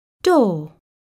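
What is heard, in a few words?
"door", English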